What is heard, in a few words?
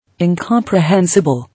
"Incomprehensible", English